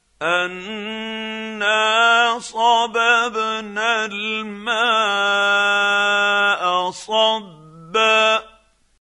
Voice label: quran, Hussary, tafseer; Abasa